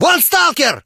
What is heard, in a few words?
rus, human combat